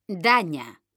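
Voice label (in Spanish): "daña", Spanish; mekanta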